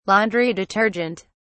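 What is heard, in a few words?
"laundry detergent", British English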